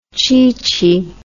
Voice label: "cheechee", English